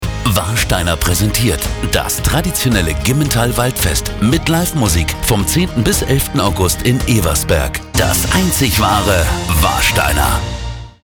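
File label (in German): Werbung Lebendig; WARSTEINER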